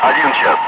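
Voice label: Russian speech, Ka-50 Wingman; DCS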